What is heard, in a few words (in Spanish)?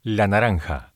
"la naranja", Spanish